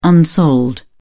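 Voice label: "unsold", British English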